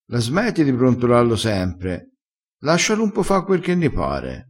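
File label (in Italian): "brontola", vocabolario dialettale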